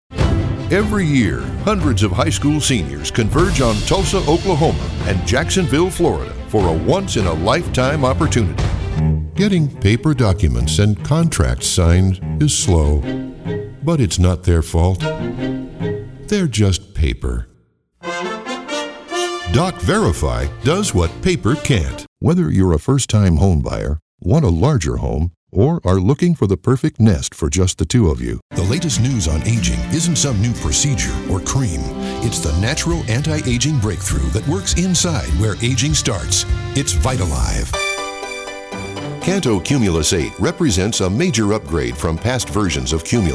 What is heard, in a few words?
corporate